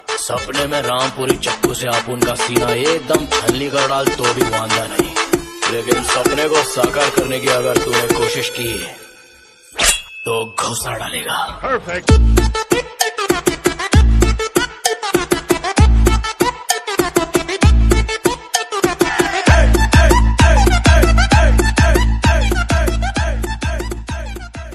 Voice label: english female ringtone